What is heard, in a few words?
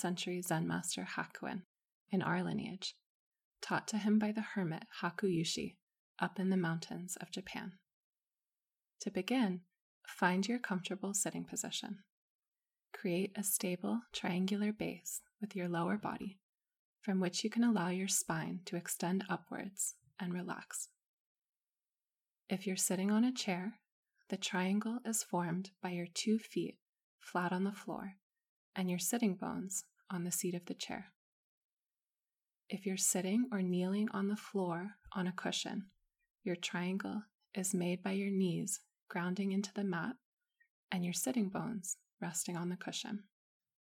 Soft ointment meditation